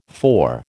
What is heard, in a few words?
"four", American